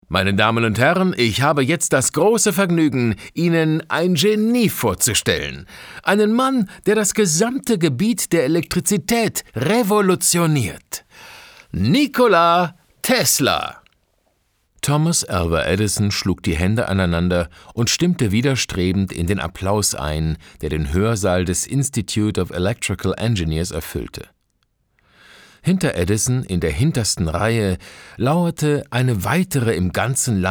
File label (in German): Rolle Erzaehler